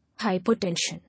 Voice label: "Hypertension", English